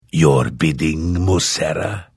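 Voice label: Hello, Vo; Morrowind GOTY GOG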